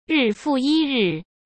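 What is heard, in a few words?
"日复一日", Mandarin Chinese; chinese flashcards; hsk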